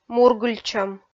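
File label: "мургыльтчӧм", Komi, female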